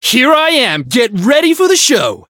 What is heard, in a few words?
Voice variable chant